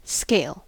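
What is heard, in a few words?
"scale", US English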